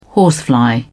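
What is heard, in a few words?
"horsefly", British English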